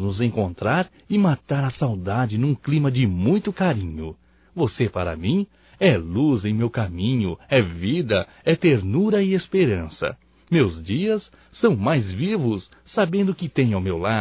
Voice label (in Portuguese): BOA TARDE ROMâNTICO M; Bom dia, tarde e noite; Telemensagens 2017